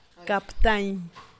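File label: "kaptain", louder, Teotitlán del Valle Zapotec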